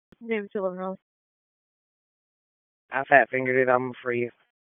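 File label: Raleigh Police Northeast District Dispatch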